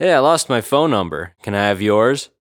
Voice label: humorous pickup line man says hey i lost my phone number can i have yours